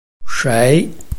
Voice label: "shei2", Chinese

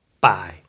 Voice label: "bai5", Chinese

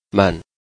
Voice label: "men5", Chinese

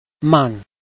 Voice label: "man4", Chinese

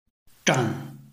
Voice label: "zhan4", Chinese

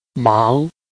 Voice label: "mang2", Chinese

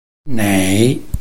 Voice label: "nei3", Chinese

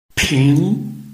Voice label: "ping2", Chinese

